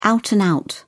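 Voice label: "out-and-out", British English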